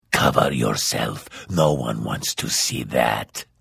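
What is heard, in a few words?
Hello, Vo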